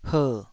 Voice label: "her3", taiwanese

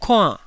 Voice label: "kuann4", Taiwanese syllable

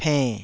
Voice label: "penn3", Taiwanese

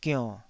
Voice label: "gionn3", taiwanese

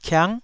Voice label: "kiang9", taiwanese syllable

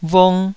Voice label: "vung2", taiwanese Syllables

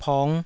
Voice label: "pong5", taiwanese

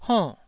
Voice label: "honn4", taiwanese syllable

